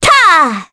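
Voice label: Vox Casting, Korean; Scarlet; kingsraid; voices heroes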